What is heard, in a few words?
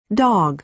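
"dog", American English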